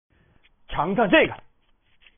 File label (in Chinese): 使用技能, BattleCry